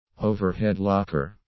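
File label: "overhead locker", English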